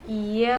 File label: "iya", andamanese; yes